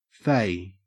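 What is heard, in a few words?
"fay", Australian English; pronunciations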